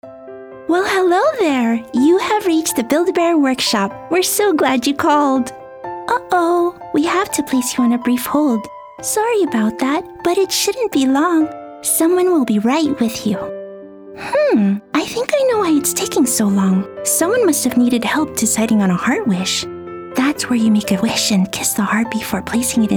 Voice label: Telephony Demo

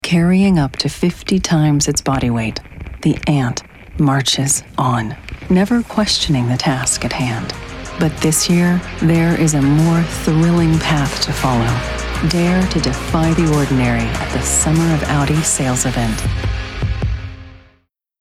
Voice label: TV Commercial Elegant Auto Car; Audi

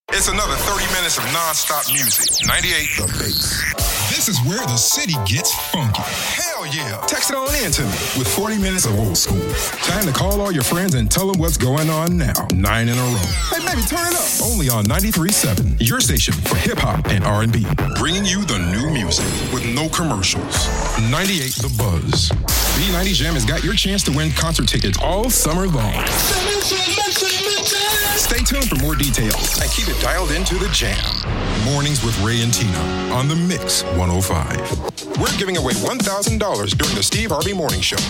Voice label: Radio Imaging

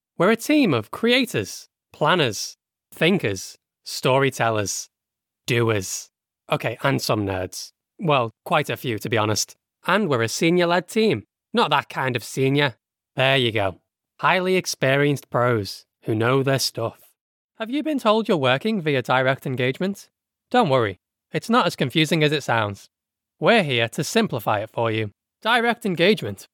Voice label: Explainer

